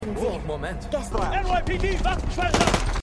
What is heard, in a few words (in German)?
Polizist, Stimmproben; NYPD Polizist